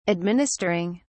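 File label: "administering", British English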